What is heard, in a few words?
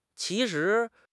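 "qi2 shi2", Mandarin Chinese; HSK3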